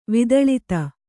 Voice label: "ವಿದಳಿತ", kannada

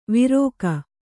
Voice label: "ವಿರೋಕ", kannada

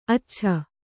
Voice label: "ಅಚ್ಚಚ್ಚೆ", kannada

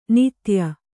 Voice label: "ನಿತ್ಯೆ", kannada

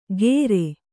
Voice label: "ಗೇರೆ", Kannada

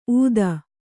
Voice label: "ಊದ", Kannada

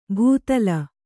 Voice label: "ಭೂತಲ", Kannada

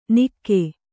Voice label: "ನಿಕ್ಕೆ", Kannada

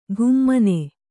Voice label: "ಘುಮ್ಮನೆ", Kannada

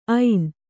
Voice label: "ಐನ", Kannada

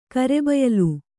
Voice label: "ಕರೆಬಯಲು", Kannada

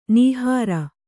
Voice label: "ನಿಹಾರ", kannada

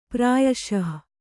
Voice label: "ಪ್ರಾಯಶಃ", Kannada

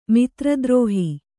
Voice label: "ಮಿತ್ರದ್ರೋಹ", Kannada

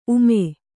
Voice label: "ಊಮ", Kannada

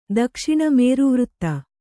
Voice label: "ದಕ್ಷಿಣ", kannada

